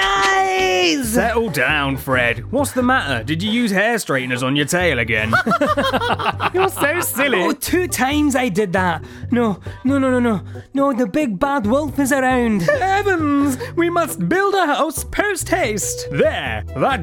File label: animation reel